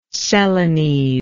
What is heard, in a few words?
"celanese", English